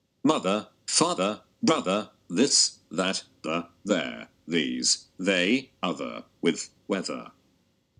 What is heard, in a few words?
th dull uk